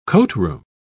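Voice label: "coatroom", British English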